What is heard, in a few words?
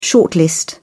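"shortlist", British English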